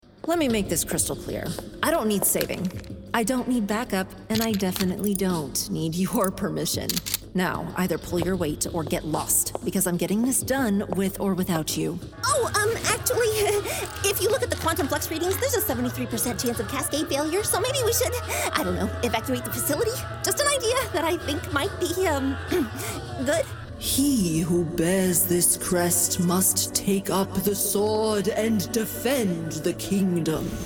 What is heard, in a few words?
Character